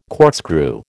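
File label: "corkscrew", American English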